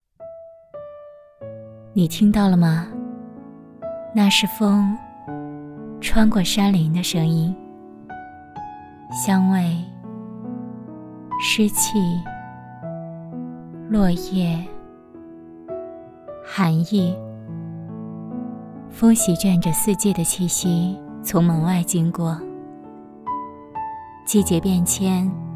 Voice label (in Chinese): 女, 旁白, 柔和亲切; 四季的气息